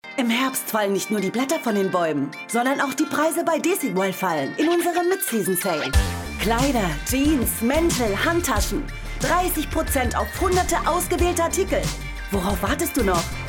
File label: female german clothes comercial; ideasonora